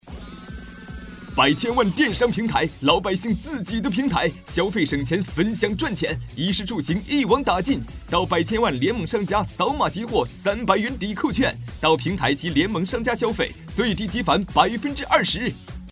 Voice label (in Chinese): 男 广告; 百千万电商